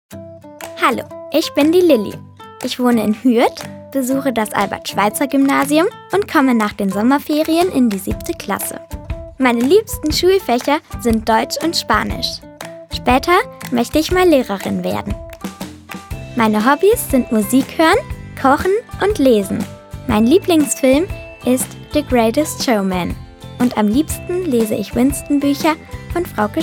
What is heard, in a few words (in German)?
Selbstvorstellung DE